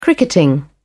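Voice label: "cricketing", British English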